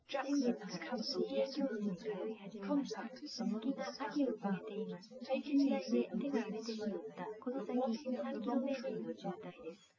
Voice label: female liverec 250ms 5cm